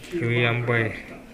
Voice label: "yanbae", minamiyamagata